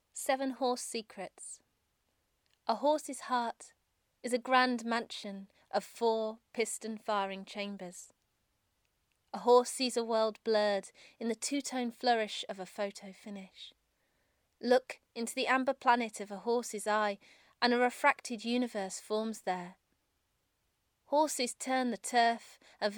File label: poetry